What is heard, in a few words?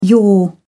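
"yore", British English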